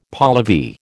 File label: "Pahlavi", American